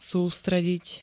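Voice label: "sústrediť", Slovak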